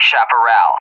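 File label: "Chaparral", English, USA; AirDefence; DCS; Allied Flight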